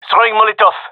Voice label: throwing molotov, male; vo